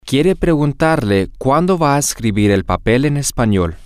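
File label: I want to ask him when he is going to write the paper in Spanish, Spanish, male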